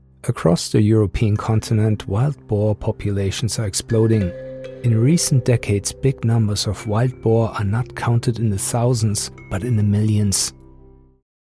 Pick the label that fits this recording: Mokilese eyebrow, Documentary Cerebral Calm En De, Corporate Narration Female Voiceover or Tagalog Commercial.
Documentary Cerebral Calm En De